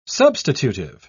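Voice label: "substitutive", British English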